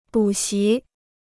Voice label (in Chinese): "补习", Chinese